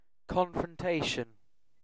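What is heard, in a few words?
"confrontation", British English; pronunciations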